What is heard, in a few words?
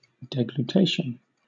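"deglutition", British English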